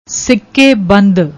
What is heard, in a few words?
"sikeband", Punjabi; ਸਿੱਕੇਬੰਦ; سِکّے بند; adjective; standard, of standard quality